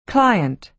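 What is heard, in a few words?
"client", American English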